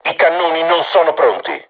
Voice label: art reloading, crew tanks italian; War Thunder

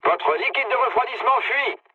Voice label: damaged water mood low, speech fr; War Thunder

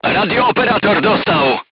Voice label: voice message machine gunner wounded, polish

